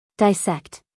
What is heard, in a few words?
"dissect", US English, female